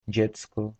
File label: "child", polish